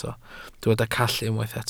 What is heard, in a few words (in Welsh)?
"call", Welsh